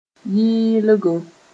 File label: "yɩɩlgo", Mooré